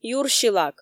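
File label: "юрси лак", Komi, female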